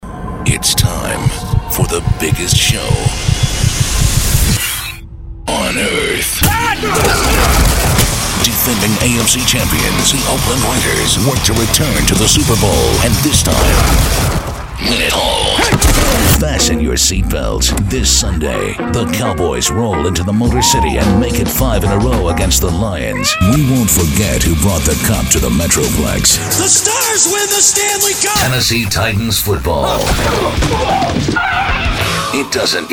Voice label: sports, radio imaging

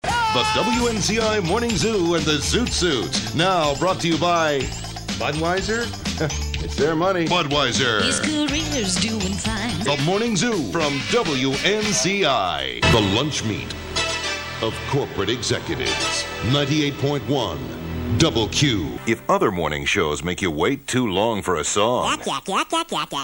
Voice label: radio rock, radio imaging

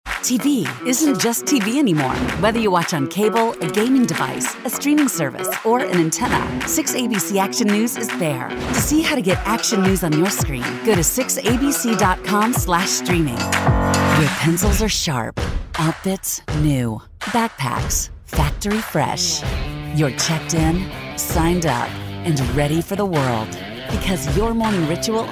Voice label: women; tv affiliate

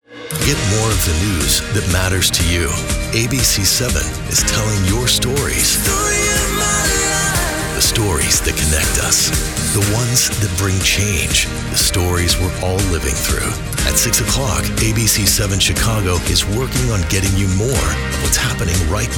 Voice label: tv affiliate men

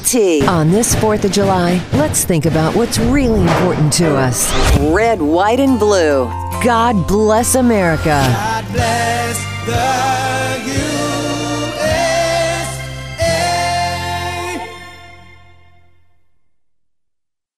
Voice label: radio country, radio imaging

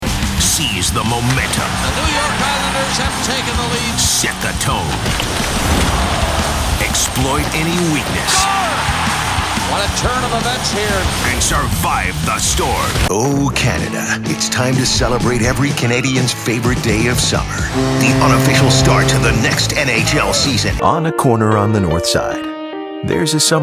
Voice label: tv affiliate men tv sports

